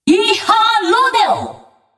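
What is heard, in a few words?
Korean; 奥丽莎（守望先锋2）; 终极技能; 韩语; 이-하, 로데오!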